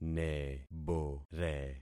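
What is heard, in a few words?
"nebore", concatenated syllables; Affixing experiment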